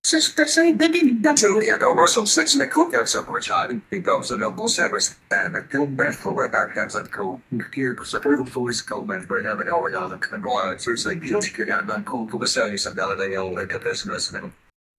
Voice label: conversation between two, aliens